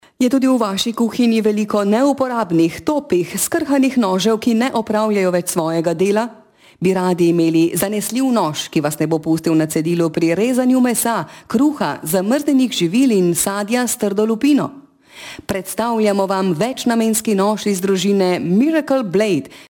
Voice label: slovenian female voiceover locutora eslovena